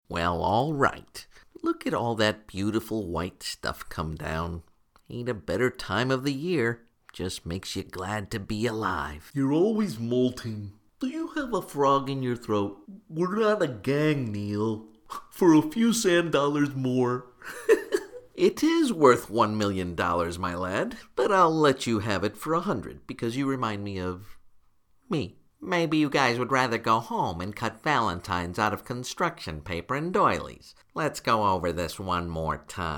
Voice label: cartoon voices